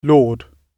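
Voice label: "lord", British English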